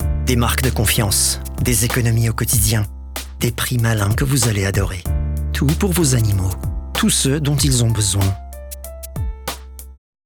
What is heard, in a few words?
male French commercial